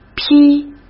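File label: "劈" Mandarin Chinese; hsk; chinese-flashcards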